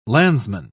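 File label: "landsman", British English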